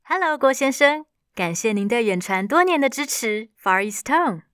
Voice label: voicemail mandarin; female voice in Mandarin Chinese

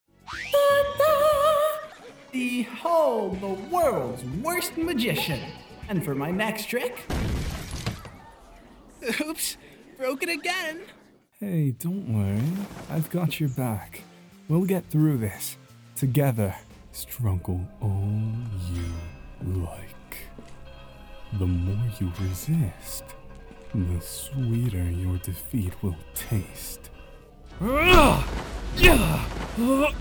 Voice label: voice acting

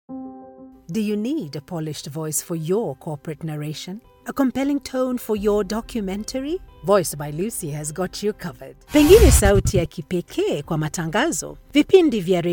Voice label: English Commercial Global South Accent FVO; voice actor located in Nairobi, Kenya; Vuka Studios

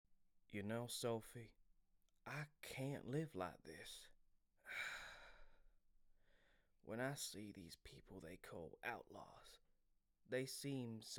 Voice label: Rancher voice

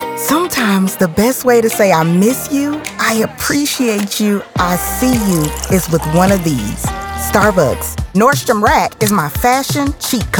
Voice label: Female Voice Over

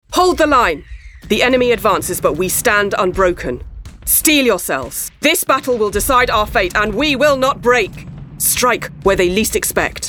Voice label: Gaming action commander

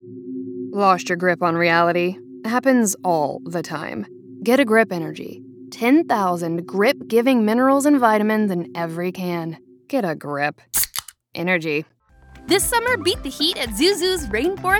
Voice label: Commercial

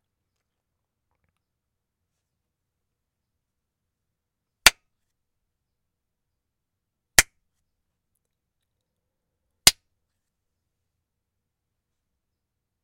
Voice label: Room Sound; Los Angeles native with a deep, warm, and trustworthy voice

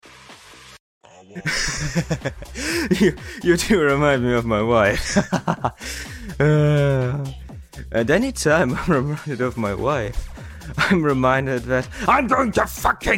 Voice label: Drunken guy

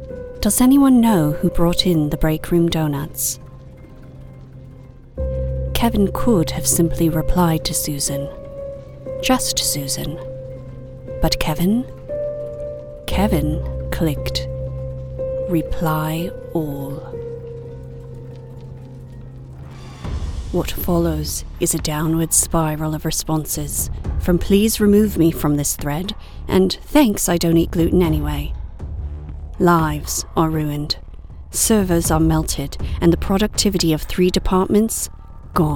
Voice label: Cold Office True Crime Podcast parody ad

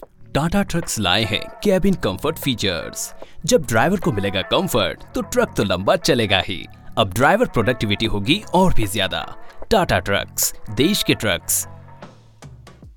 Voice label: Hindi commercial

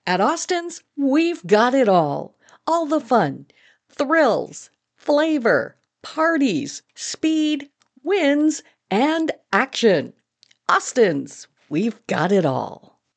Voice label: Theme Park Ad

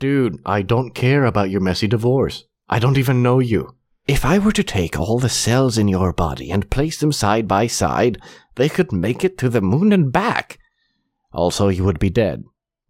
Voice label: Character Demo, male; 18 year old male voice talent

